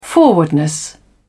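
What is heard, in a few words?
"forwardness", English, British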